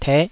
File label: "teh5", taiwanese Syllables